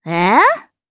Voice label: Vox Deny, jp; Cecilia; kingsraid

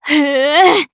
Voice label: Casting, jp; DarkFrey; kingsraid

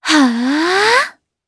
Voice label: Vox Casting jp; Shamilla; kingsraid audio

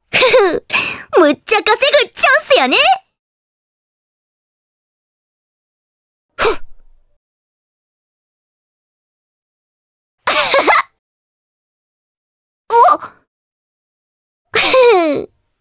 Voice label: vox, jp; Requina; kingsraid

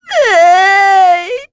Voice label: Sad; voices; heroes; Sonia; kings raid

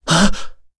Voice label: vox, kr; Ezekiel; kingsraid

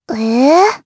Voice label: Vox Deny jp; Lewsia; kings raid

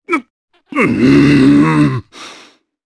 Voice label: Vox Sad, jp; Baudouin; kingsraid

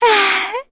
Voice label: vox, kr; Cassandra; kingsraid

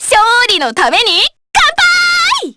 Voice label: Victory, jp; Miruru L; kingsraid

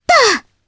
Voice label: Landing, jp; Mirianne; kingsraid

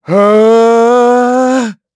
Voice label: Siegfried Vox Casting, voices heroes en; kingsraid-audio

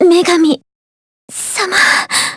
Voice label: Dead, jp; Maria L; kingsraid

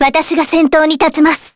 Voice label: Vox Skill jp; Jane; kings raid

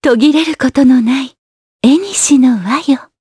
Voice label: Skill, jp; heroes; Yuria; kingsraid

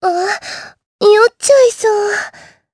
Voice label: Vox Skill jp; Erze; kingsraid

